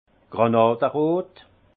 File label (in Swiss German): "granaderot", alsace; vin; grenat